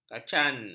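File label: "kacan", Malay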